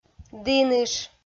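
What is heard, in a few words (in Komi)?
"діныш", Komi, female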